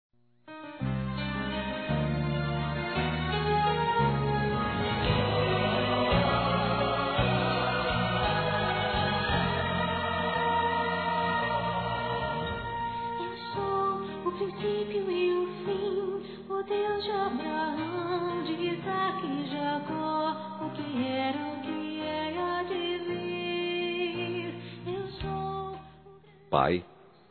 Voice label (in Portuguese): PARA PADRINHO, Telemensagens, Dia dos Pais; Telemensagens 2017